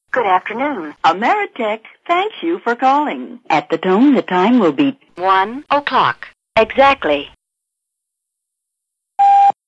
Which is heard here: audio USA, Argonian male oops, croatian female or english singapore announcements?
audio USA